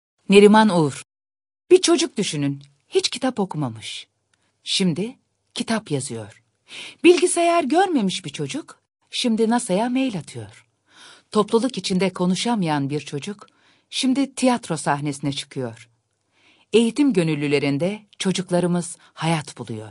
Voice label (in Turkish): turkce kadin